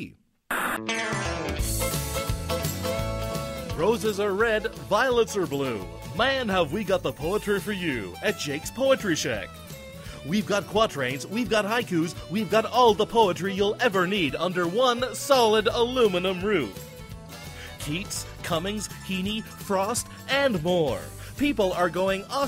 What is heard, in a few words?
Voice Announcer